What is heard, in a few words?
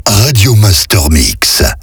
Accap slow; Radio Master Mix; Habillage